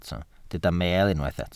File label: "meel", Welsh